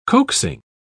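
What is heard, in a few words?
"coaxing", British English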